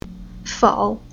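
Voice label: "Fou", Chinese syllable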